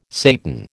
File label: "Satan", American